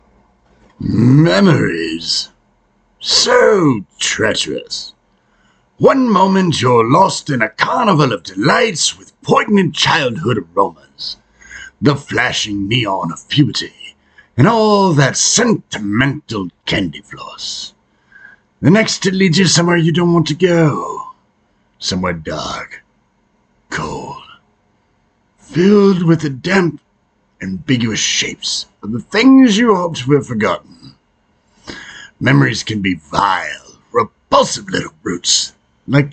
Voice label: Monologue; Killing Joke